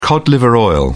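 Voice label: "cod liver oil", English, British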